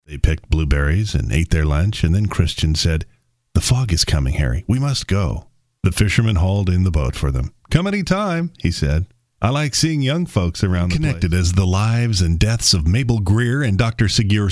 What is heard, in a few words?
Audiobook